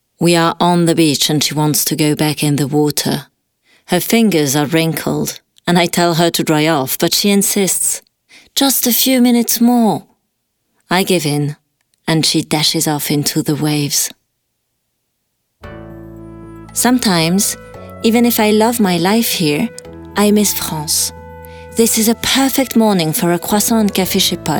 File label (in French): anglais british accents français et italien